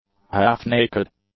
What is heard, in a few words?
"half-naked", English; adjectives